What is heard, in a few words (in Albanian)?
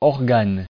"organe", frengjisht